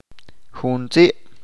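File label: "único", Chalchiteko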